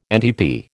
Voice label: "NTP", American

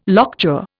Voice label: "lockjaw", Indian

